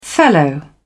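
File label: "fellow", British English, dictionary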